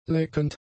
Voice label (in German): "leckend", German; adjectives